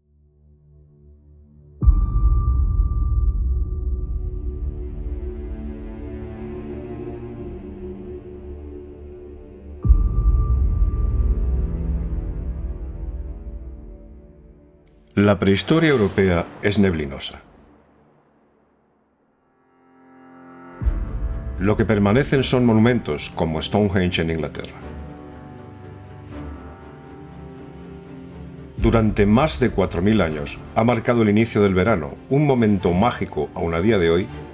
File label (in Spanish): Locución documental; Creta